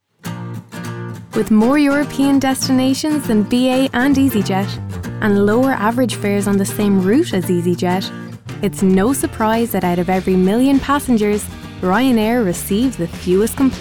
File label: commercial